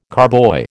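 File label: "carboy", American English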